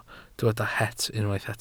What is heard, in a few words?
"het", Welsh